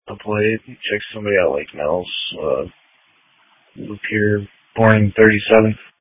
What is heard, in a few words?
Police Tactical Encrypted